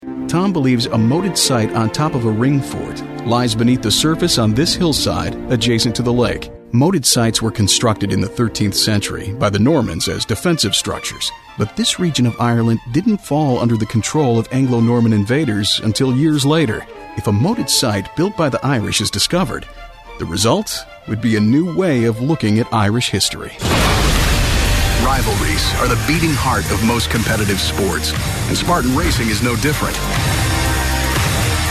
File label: Narration